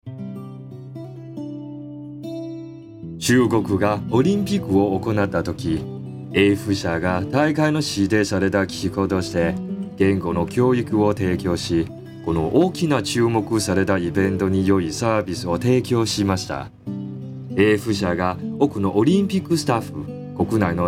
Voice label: Japanese

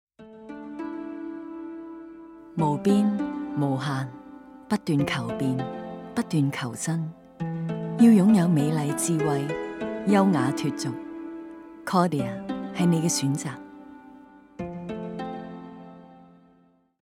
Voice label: TVC; Cartier

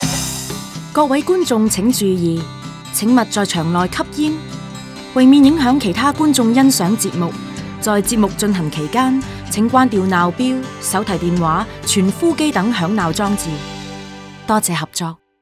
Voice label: Theater announcement

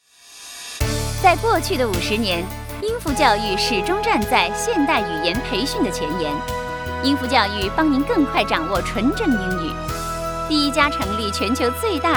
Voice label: English town

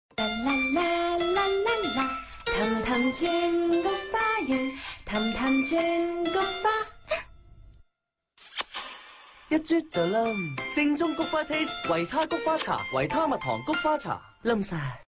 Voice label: Vita TVC

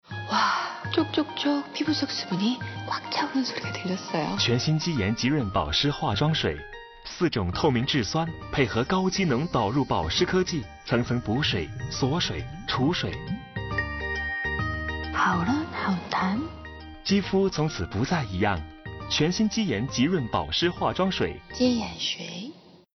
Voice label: Korean skincare

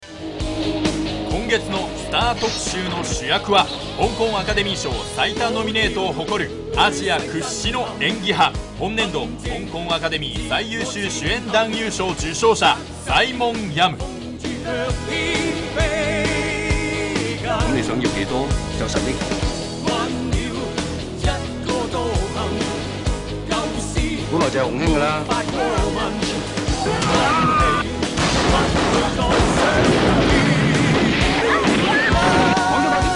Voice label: SERIOUS CLEAR trailer VO

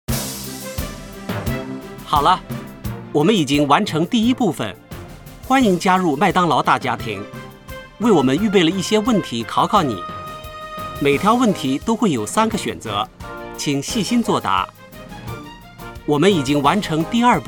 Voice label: Internal teaching VO

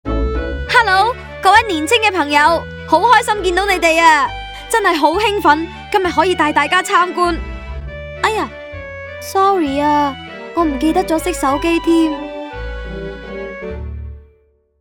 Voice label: Girl Voice

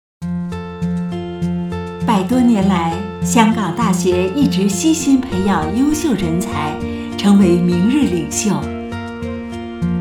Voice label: University Promo

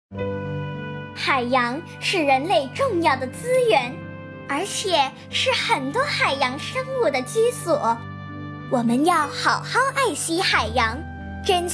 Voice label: Girl Voice

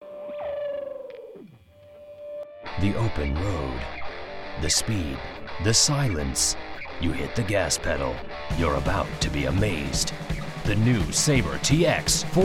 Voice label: Car TVC

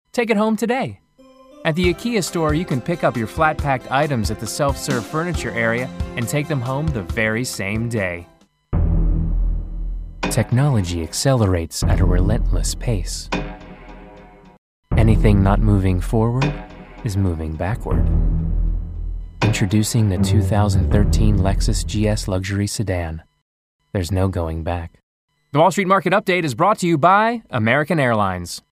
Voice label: Advertising

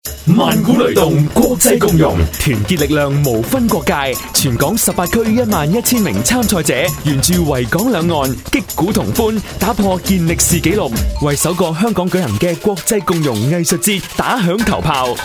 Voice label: Radio advertising

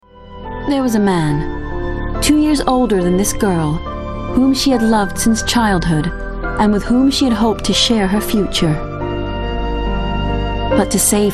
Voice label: Narration USA

